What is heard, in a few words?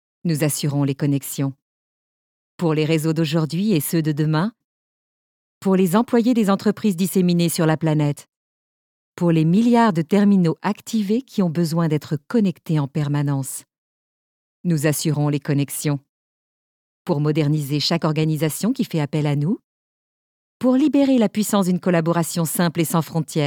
Commercial